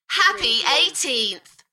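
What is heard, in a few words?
British female Happy 18th Enthusiastic; beanstalk audio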